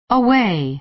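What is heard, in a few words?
"away", American English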